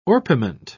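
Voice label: "orpiment", British English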